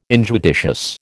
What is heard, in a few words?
"injudicious", American